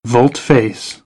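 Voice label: "volte face", American, English; AmericanBritish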